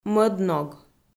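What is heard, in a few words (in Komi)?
"мӧд ног", Komi, female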